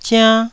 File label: "ziann1", Taiwanese syllable